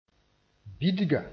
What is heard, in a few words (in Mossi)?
"bidga", moore